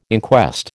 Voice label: "inquest", American